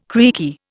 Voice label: "creaky", Indian

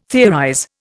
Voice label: "theorize", Indian

